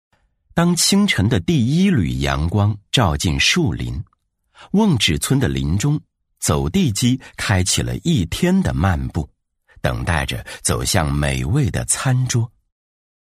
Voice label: 男 纪录片 舒缓唯美; 男83-纪录片-舒缓唯美

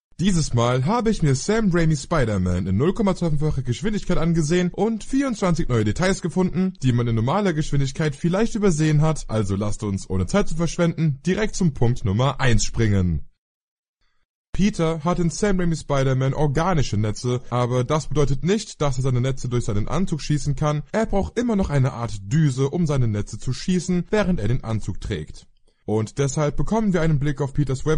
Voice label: 德语男声

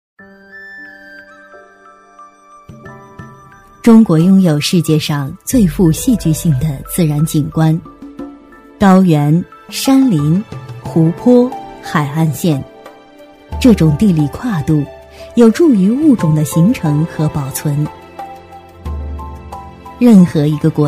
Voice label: 女 纪录片 松茸 舒缓; 舌尖上的中国

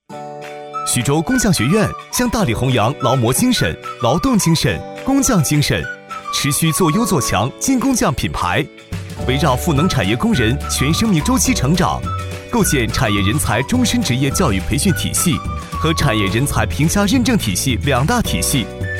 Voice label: 男 MG; MG飞碟说配音

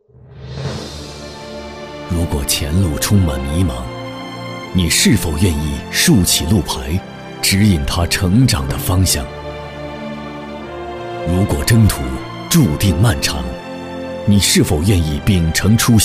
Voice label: 男 专题片 沉稳大气; 高端品质; 大气浑厚

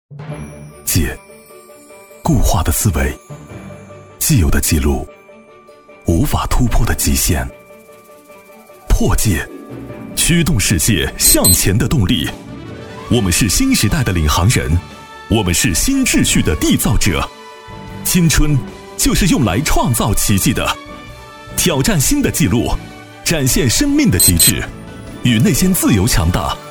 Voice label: 男 颁奖配音 磁性质感; 东泰奖

